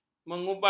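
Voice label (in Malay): "mengubah", Malay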